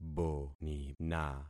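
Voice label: "bonina", concatenated syllables; Affixing experiment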